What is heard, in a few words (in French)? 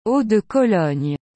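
"eau de Cologne", French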